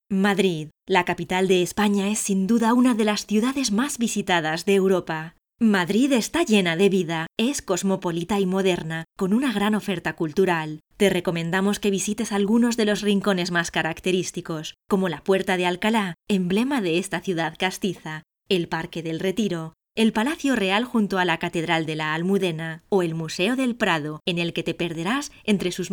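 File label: Spanish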